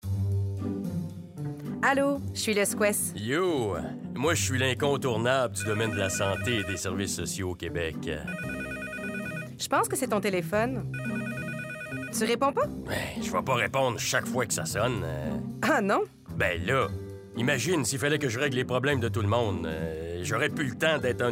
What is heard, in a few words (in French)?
Telephone, Voix Off; 60sec